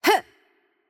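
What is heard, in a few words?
Hungarian attack light voice; bloom minigame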